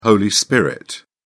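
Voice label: "holy spirit", British English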